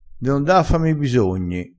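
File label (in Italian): "fa propi", vocabolario dialettale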